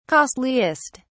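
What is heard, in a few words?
"costliest", British English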